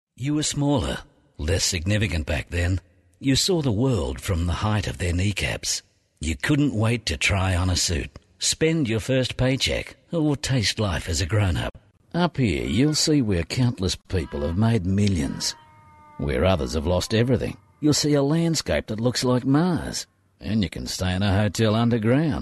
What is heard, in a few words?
French Male